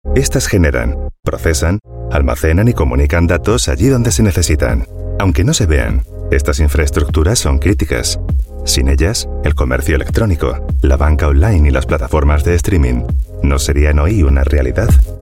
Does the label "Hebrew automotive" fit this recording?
No